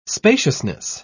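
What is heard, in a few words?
"spaciousness", English, British English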